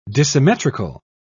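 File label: "dissymmetrical", British English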